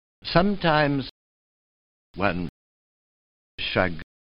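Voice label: rp strut, 19th century rp; 1874; somerset maugham; pathe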